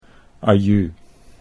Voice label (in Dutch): "aju", Dutch